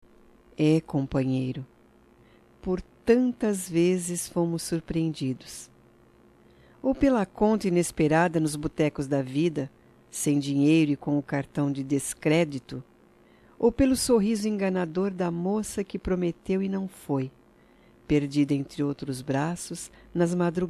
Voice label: DIA DO AMIGO F; Datas Mistas; Telemensagens 2017

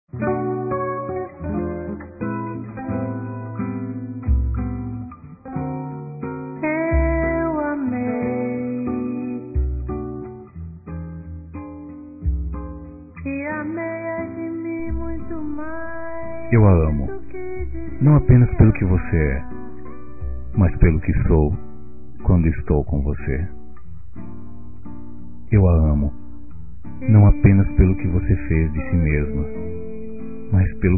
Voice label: Dia das Mães Amiga Masculino; Telemensagens 2017

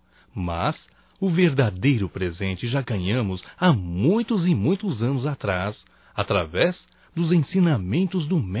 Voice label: NATAL ROMANTICO M; masc; Telemensagens 2017; Natal & Ano Novo

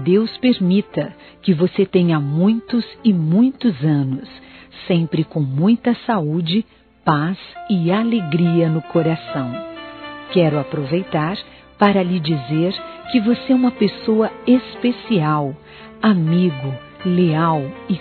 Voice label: Aniversário Compadre Feminino; Aniversários; Aniv Familiar

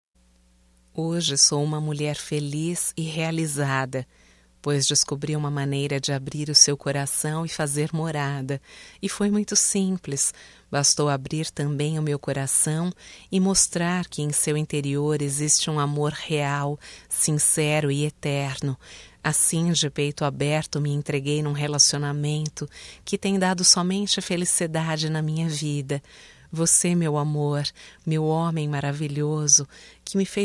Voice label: DECLARAÇÃO - F, Românticas fem

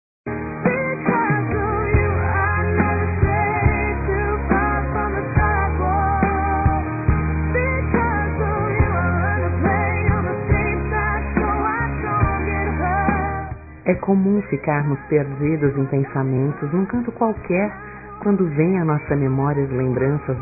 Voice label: DISTANTE F; Dia Dos namorados; fem; Telemensagens 2017

